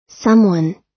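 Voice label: "someone", American English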